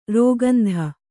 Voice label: "ರಾಕೇಂದು", Kannada

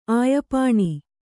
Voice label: "ಆಯಪಾಣಿ", Kannada

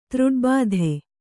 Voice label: "ತೃಡ್ಬಾಧೆ", Kannada

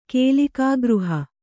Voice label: "ಕೇಲಿಕಾಗೃಹ", kannada

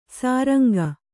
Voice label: "ಸುರಂಗ", Kannada

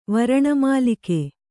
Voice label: "ವರಣಮಾಲಿಕೆ", Kannada

